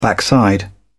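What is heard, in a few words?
"backside", British English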